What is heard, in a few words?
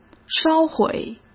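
"烧毁", Mandarin Chinese; chinese flashcards; hsk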